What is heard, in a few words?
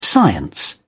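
"science", British English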